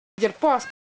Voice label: Semai Shape

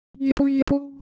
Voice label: Ewe, ideophones; Color Visual

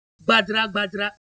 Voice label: Siwu Motion

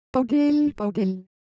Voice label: Korean Texture, Ideo Phones

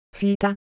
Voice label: Ewe, ideophones; Color Visual

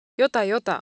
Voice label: Japanese Motion, Ideo Phones

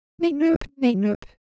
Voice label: Korean, Ideo Phones; Shape

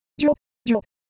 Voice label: Korean Sound

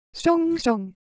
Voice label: Korean, Ideo Phones; Motion

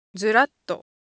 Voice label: Japanese Shape, Ideo Phones

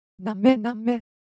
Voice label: Japanese Texture, Ideo Phones

